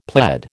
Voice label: "pled", American